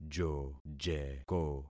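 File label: "jojeko", concatenated syllables; Affixing experiment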